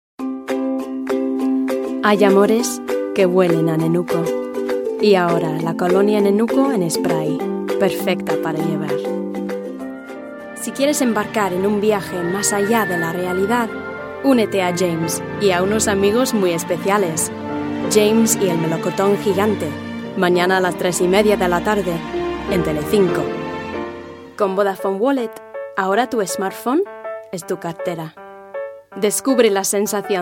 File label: Spanish Voice